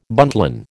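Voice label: "buntline", American English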